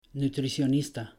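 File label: "nutricionista", Spanish; pronouncing c g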